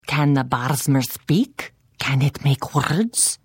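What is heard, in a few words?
Hello, Khajiit female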